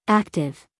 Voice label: "active", us female

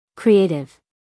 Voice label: "creative", US English, female; 1000 hours

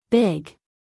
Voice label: "big", us female; everyone-can-use-english

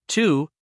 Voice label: "to", us male

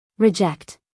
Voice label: "reject", US female; 1000 hours

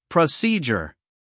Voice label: "procedure", US English, male

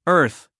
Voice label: "earth", us male; everyone-can-use-english

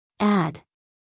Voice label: "add", us female

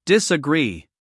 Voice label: "disagree", us male; everyone-can-use-english

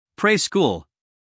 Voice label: "preschool", US male

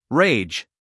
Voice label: "rage", US English, male

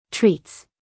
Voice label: "treats", us female

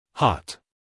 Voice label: "hot", us male; 1000 hours

